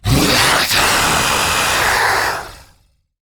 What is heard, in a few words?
Attack, Battle Barks; Warframe; MiTW